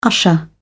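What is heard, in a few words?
"usher", British English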